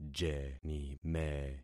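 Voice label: "jenime", concatenated syllables; Affixing experiment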